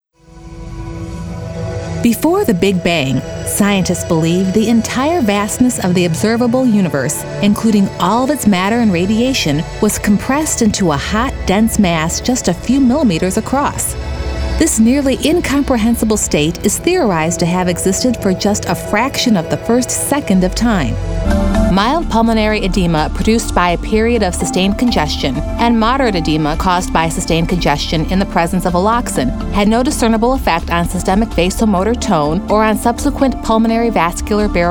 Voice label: Narrative